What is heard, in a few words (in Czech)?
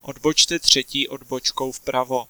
3rd Right, Czech, male